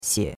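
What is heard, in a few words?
"xie3", Mandarin Chinese; Cours-de-Chinois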